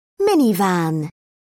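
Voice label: "minivan", British English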